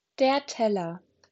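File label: "Teller", German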